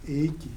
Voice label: "lake", Kibembe